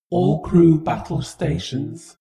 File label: female fighting status